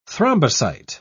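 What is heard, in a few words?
"thrombocyte", British English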